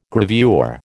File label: "gravure", American